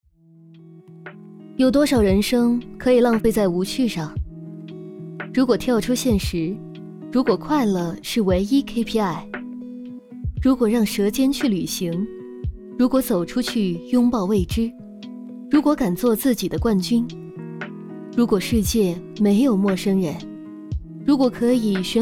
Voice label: 走心青春; 亚洲航空

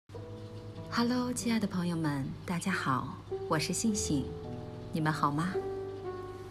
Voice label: 你们好吗, 成熟大气，温柔知性女声, 普通话

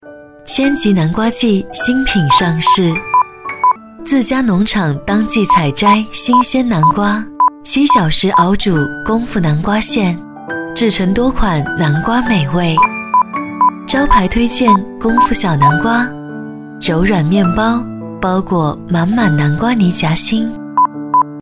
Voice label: 广告 温柔 唯美 清新 甜品

